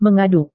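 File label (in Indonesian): "mengaduk", Indonesian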